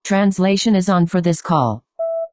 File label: Disclosure With Tone; Call Translation; en US